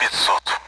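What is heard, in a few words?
Speech Sound Russian Common AWACS Numbers; DCS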